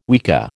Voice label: "weka", American